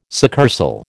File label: "succursal", American